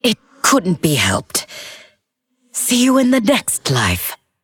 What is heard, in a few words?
Female Undead Victory